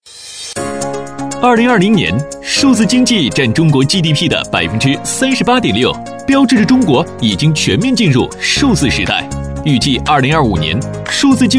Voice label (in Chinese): 男 量子可信云; 飞碟说